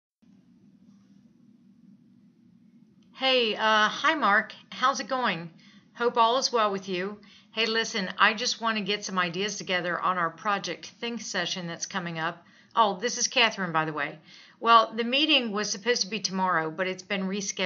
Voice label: Voicemail; The Business of Thinking® Series Start Communicating